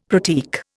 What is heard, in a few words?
"pratique", Indian; Dictionary